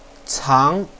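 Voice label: "cang2", Mandarin Chinese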